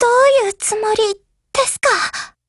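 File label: Japanese, Voice; BREAK; GF Alarm; MP40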